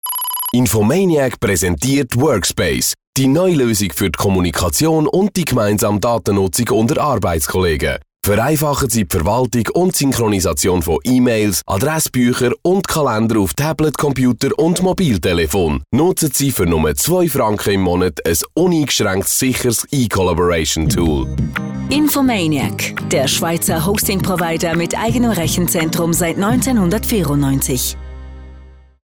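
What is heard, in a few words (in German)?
Swiss German, Werbung Dynamisch; Fremdtake; GSW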